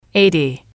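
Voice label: "eighty", English, female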